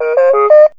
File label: Speak Spell